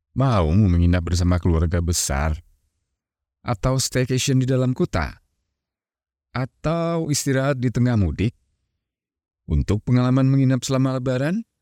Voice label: INDONESIA CASUAL